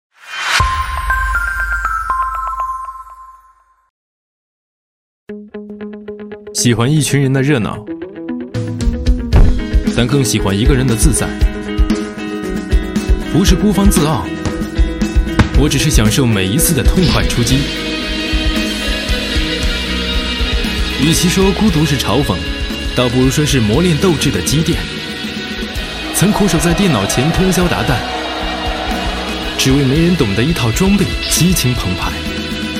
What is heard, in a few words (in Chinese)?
男-广告-游戏